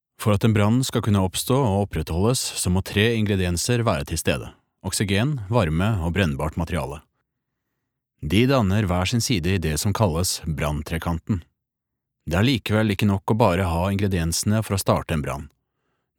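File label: Norwegian e-learning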